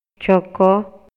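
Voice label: Maya, male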